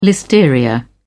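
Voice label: "listeria", British English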